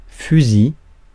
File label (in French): "fusil", Mots francais